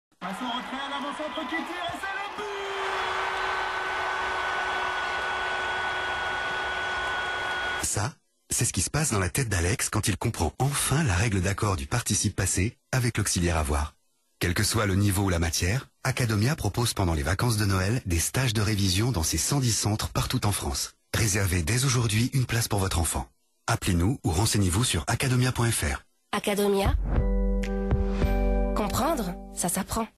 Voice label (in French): spot radio; acadomia